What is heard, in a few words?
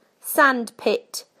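"sandpit", British English; PictureDictionary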